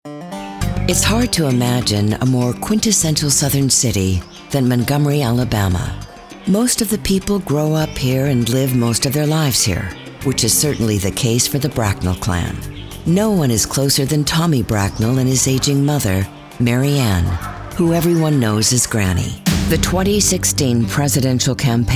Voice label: Narration